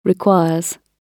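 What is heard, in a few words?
"requires", British English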